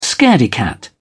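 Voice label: "scaredy cat", British English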